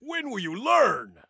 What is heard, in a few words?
Taunt Learn, Soldier Male; Soldier Male 02